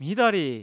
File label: joy; Keio-ESD